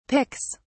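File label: "picks", British English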